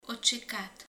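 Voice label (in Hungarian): "homlok", Hungarian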